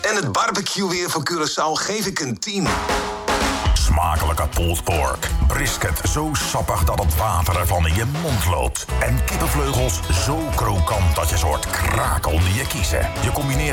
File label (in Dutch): radiocommercial lokaal